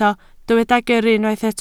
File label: "gyrru", Welsh